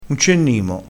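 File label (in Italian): "nimo", dialettale crespinese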